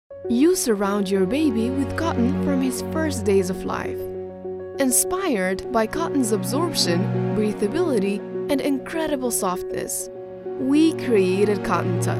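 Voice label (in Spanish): comercial, Argentina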